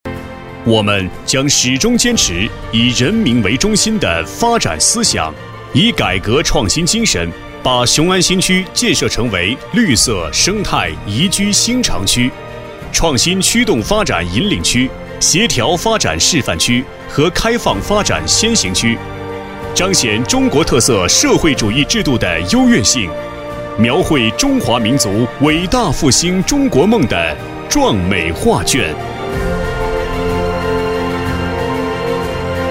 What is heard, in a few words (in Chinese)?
男 专题【大气浑厚】; 雄安新区